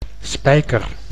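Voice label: "spijker", Dutch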